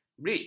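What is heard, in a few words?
"bridge", Malay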